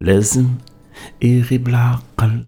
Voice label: POET, POET VOICE, Arabian Traditions